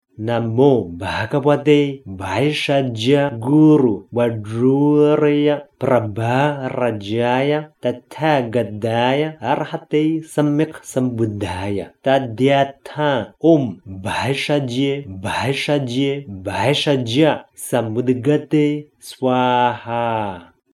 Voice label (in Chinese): 藥師咒 直誦; 藥師佛 藥師七佛 藥師琉璃光如來 Bhaisaijya Medicine Buddha